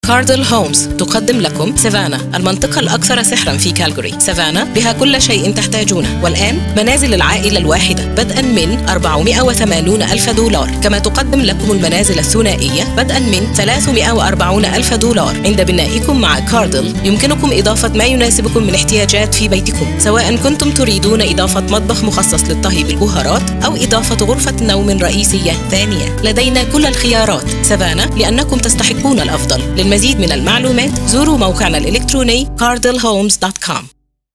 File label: Arabic